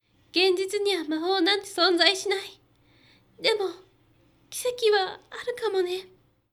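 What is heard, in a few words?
female; 現実には“魔法”なんて存在しない。でも、奇跡はあるかもね。; 高音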